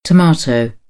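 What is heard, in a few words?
"tomato", British English; AmericanBritish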